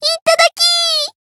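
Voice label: finish, Japanese; ilulu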